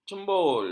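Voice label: "cembul", Malay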